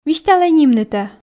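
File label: "висьталӧй нимнытӧ", Komi, female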